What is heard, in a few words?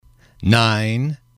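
"nine", English, male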